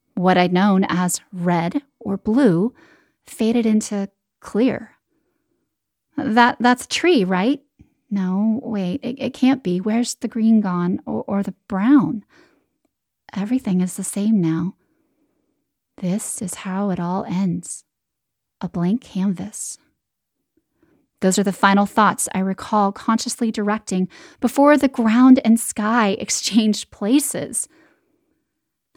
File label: audiobook; happily ever now